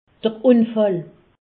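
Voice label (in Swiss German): "unfall", Alsatian; medecine; accident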